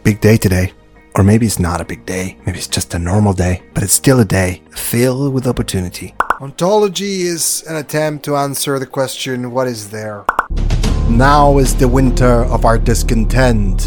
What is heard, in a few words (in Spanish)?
ingles